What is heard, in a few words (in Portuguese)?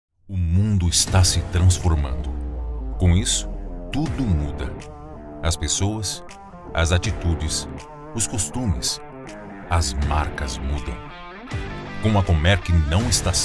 voz de impacto credibilidade